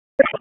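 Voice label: Police Southwest District Dispatch; Raleigh; wake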